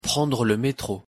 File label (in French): prendre le metro, pronunciation fr